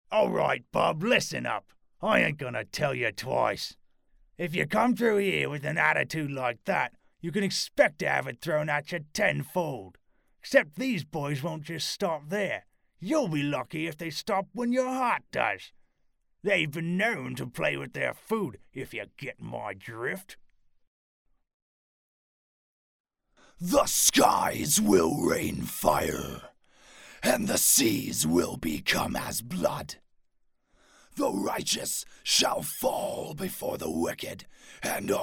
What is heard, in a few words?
male canadian voice over character